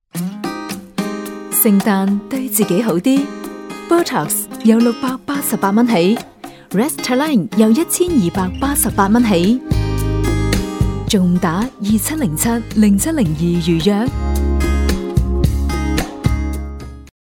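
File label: chinese cantonese female commercial; voice demos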